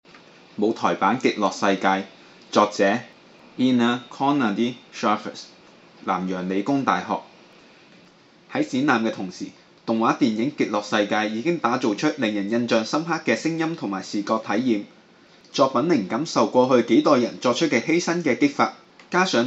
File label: Cantonese; ElysianFields